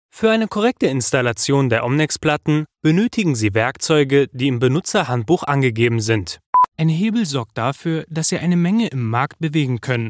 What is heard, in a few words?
GERMAN